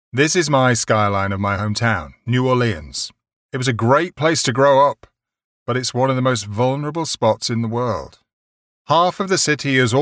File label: english british podcasts